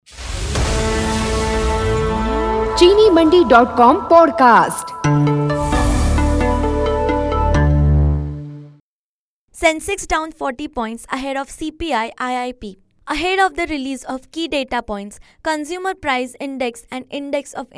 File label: english market open